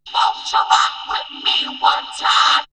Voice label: Vocoder Rok With Me, robot vox; X-Static Goldmine